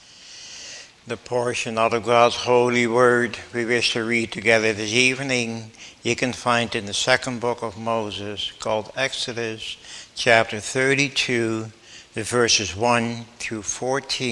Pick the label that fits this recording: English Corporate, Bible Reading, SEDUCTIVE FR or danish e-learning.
Bible Reading